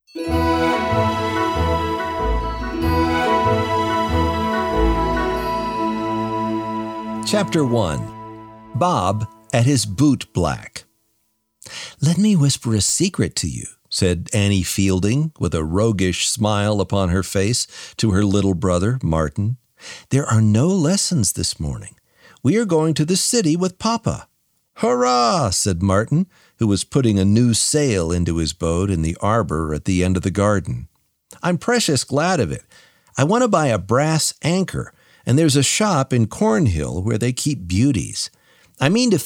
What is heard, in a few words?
Audiobook Sample; Clean Your Boots Sir